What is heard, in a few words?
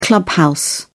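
"clubhouse", English, British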